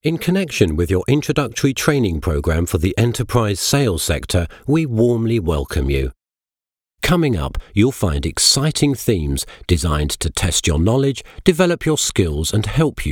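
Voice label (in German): British English, Kommentar Variabel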